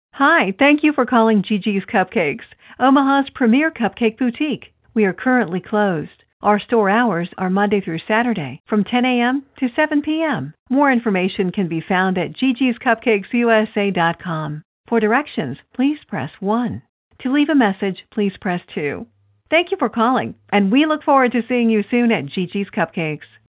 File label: Non Business Hours Greeting; Gi Gis Cupcakes